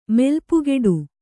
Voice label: "ಮೆಲ್ಪುಗೆಡು", Kannada

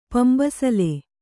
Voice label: "ಪಂಬಸಲೆ", Kannada

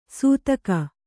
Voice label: "ಸೂತಗ", Kannada

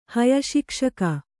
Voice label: "ಹಯಶಿಕ್ಷಕ", Kannada

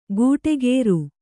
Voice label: "ಗೂಟೆಗೇರು", Kannada

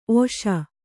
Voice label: "ಒಸಿ", kannada

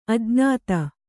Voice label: "ಅಜ್ಞಾತ", Kannada

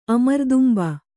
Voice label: "ಅಮರ್ದುಂಬ", Kannada

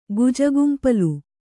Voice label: "ಗುಜುಗುಂಪಲು", Kannada

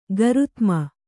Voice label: "ಗರುತ್ಮ", Kannada

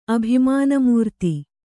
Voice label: "ಅಭಿಮಾನಮೂರ್ತಿ", Kannada

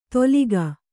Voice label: "ದೋಲಿಗ", Kannada

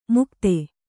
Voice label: "ಮುಕ್ತೆ", Kannada

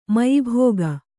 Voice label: "ಮಯಿಭೋಗ", kannada

